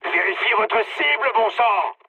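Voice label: player friendly fire, mood high, French; War Thunder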